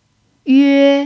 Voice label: "yue1", chinese